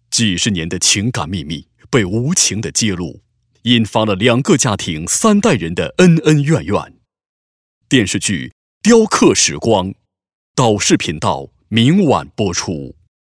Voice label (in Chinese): 男 频道包装电视剧 干声, 国语男声配音; 频道包装电视剧《雕刻时光》